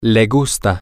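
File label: he likes, spanish, male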